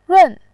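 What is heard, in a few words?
"run", Mandarin Chinese, fourth tone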